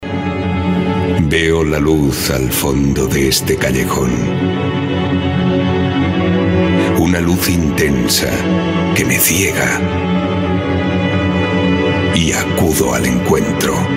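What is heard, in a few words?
spanish documentaries, Male; professional Spanish voice actor since 1990; Dubbing actor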